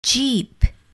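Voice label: "jeep", English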